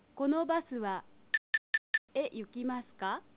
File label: "kono basu", japanese; vehicles